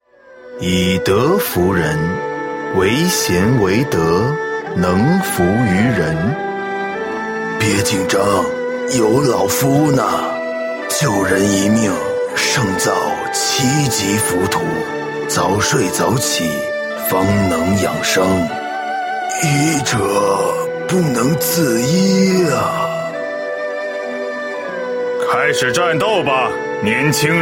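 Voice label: middle-aged and elderly, male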